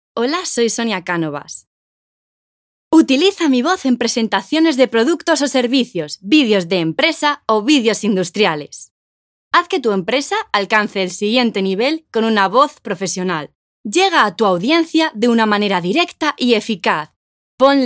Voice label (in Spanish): Corporativo